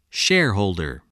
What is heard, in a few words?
"shareholder", spelling